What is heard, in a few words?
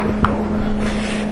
ghost clipped; Springfield Carriage Co; evps; someone said ghost